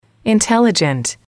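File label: "intelligent", English, female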